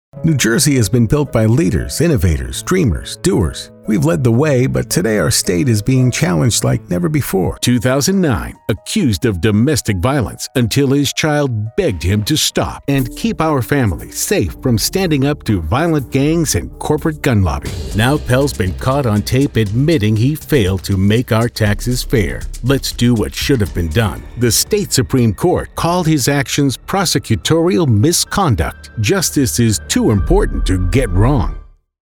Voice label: Political VO